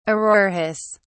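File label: "auroras", British English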